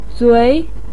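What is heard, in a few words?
"zui", Mandarin Chinese syllable, second tone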